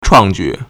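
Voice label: "chuàngjǔ", Chinese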